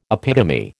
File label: "epitome", American